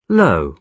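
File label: "low", British English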